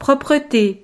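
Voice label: "proprete", French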